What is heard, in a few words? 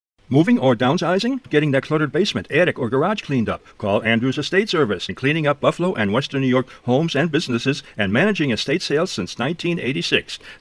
radio commercial; andrews estate service; wxrl 1300 am